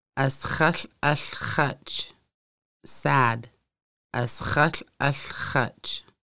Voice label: Sad, conversational Lushootseed